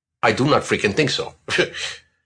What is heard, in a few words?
Not Freakin Think, Male; Exclamation Sound Effects